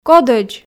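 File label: "кодӧдз", Komi, female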